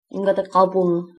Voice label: my name is, greenlandic

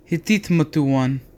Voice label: name, serrano; Hiitiit metewan?

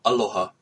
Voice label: "hello", hawaiian; Aloha; welcome

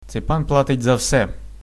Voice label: pay, ukrainian

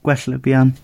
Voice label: get well soon, gwentian

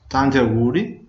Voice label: birthday, venetian

